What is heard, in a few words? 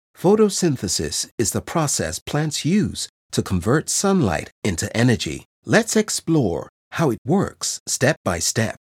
Education Informative Explainer US Neutral Accent